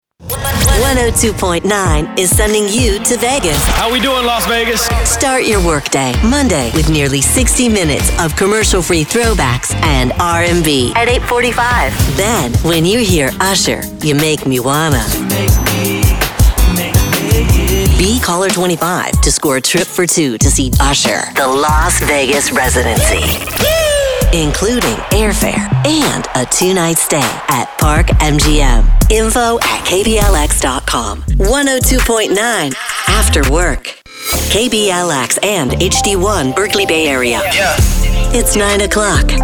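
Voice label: radio imaging women R and B urban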